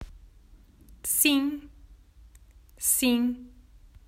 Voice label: "Sim", Portuguese